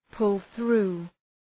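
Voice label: "pull through", English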